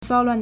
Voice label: "sao1luan4", Chinese